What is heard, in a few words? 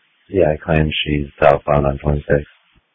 Police Tactical Encrypted